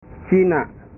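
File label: "cina", Indonesian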